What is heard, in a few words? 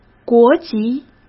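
"国籍", Mandarin Chinese; chinese flashcards; hsk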